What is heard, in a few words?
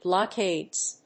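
"blockades", English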